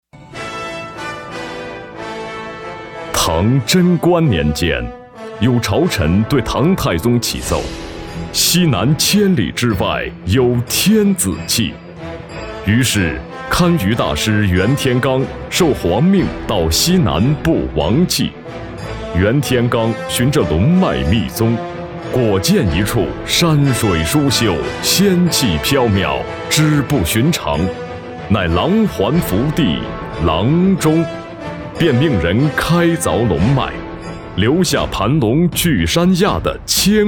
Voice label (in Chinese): 男, 旁白; 阆中